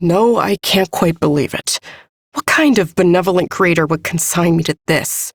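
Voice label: Ambient Female Sedentary, Dialog; Duviri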